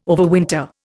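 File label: "overwinter", Indian English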